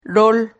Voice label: "rol", silabas

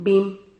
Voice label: "bin", Silabas

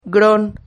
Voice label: "gron", Silabas

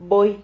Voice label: "voy", Silabas

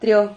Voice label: "trio", Silabas

